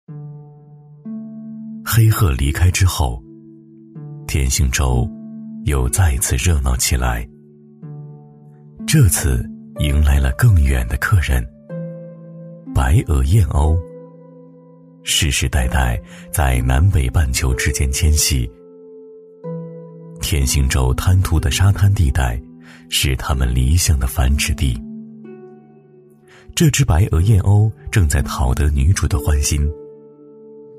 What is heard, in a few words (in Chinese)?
男 纪录片 娓娓道来; 动物世界; 男B1-大气沉稳